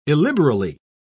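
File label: "illiberally", British English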